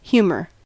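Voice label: "humor", US English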